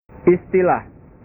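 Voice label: "istilah", Indonesian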